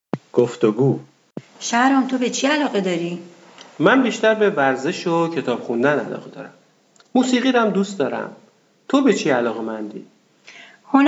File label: Dialog, persian